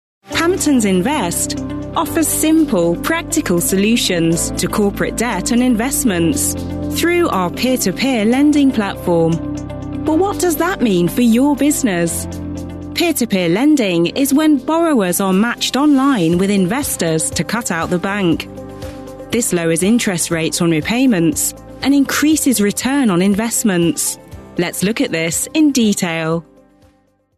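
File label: English, UK, female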